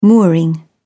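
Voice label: "mooring", British English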